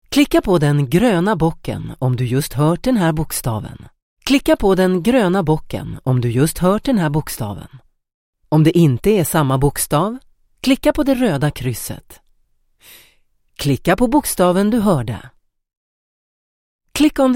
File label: swedish e-learning